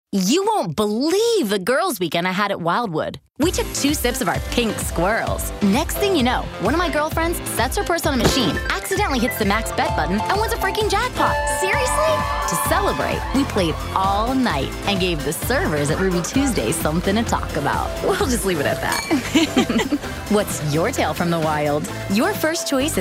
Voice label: Conversational